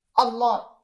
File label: Voice Acting, es